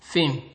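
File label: "film", Nigerian Pidgin, male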